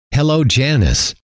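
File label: "hello", male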